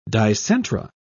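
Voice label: "dicentra", British English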